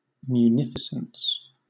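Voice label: "munificence", British English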